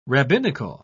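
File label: "rabbinical", English, uk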